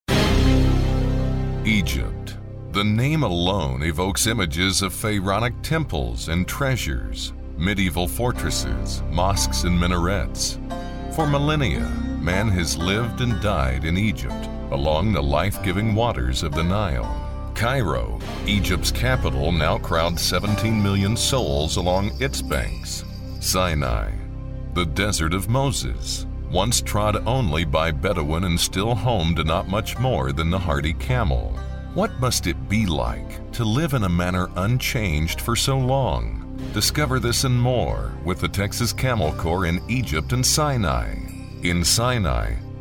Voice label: Narr, male voice talent

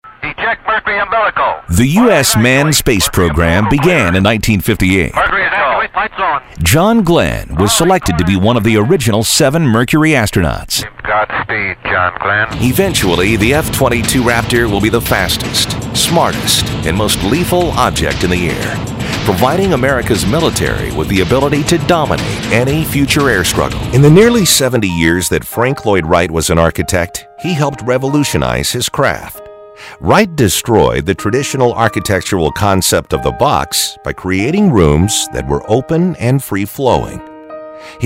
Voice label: Narr, male voice talent

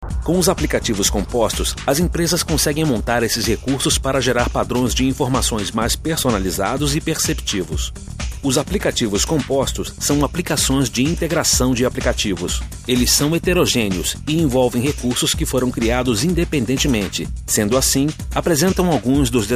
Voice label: Brazilian Portuguese; foreign languages

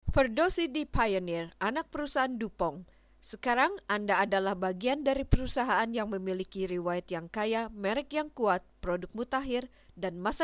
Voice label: Indonesian; foreign languages

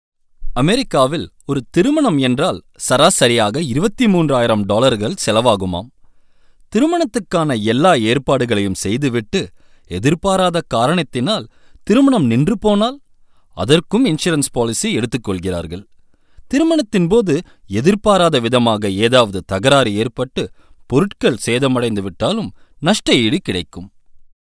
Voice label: Tamil

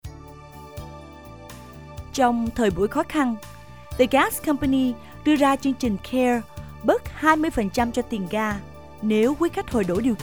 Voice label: Vietnamese

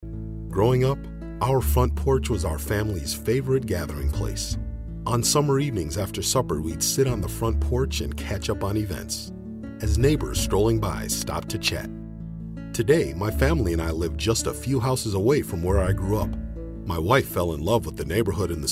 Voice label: Narr, male voice talent

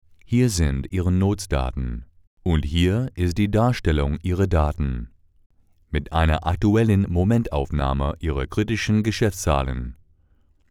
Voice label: German; foreign languages

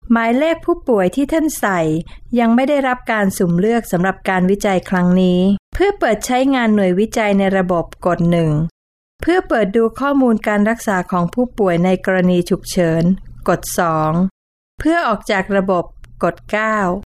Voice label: Thai; foreign languages

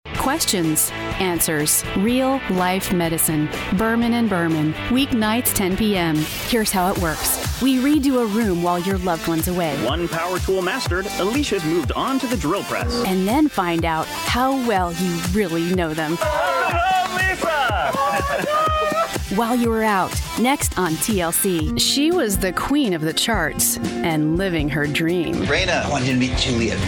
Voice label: female voice talent promo

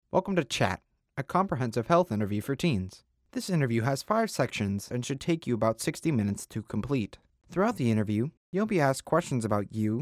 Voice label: Teen; kid

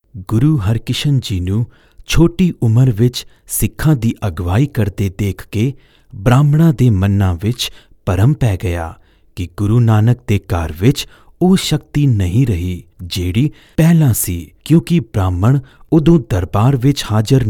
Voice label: Punjabi; foreign languages

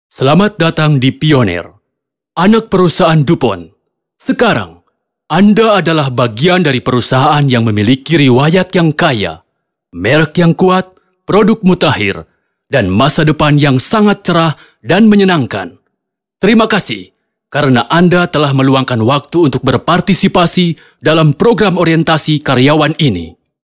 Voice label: Indonesian; foreign languages

